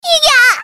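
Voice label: character voice damage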